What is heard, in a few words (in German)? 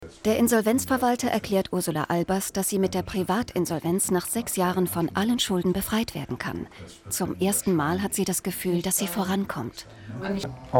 reportage; wdr hier und heute; schuldenfalle